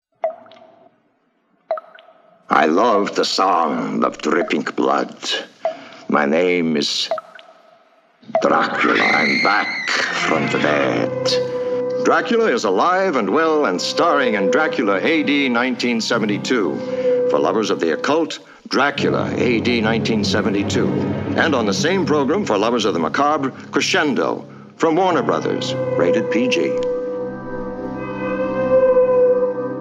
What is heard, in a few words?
Radio Spots; Dracula A.D. 1972; Crescendo